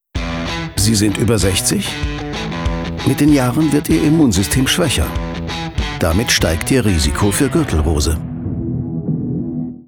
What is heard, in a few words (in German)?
Spots, maenner; GSK Gürtelrose Impfung